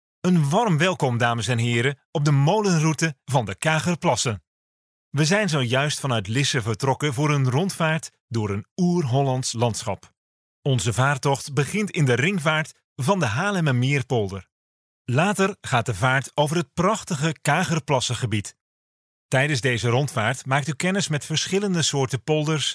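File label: Dutch, Netherlands, male, voice over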